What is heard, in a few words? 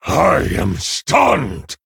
Male Orc Ogre Wow; en